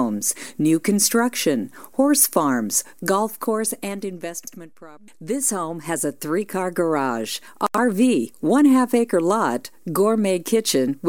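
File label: English American female voice Real estate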